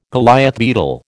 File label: "Goliath beetle", American